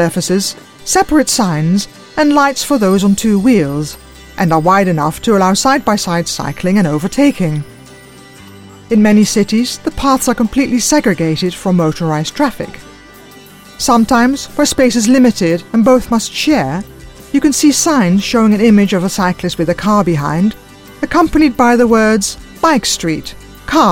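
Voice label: Dutch, Accented English; voice demos; GoLocalise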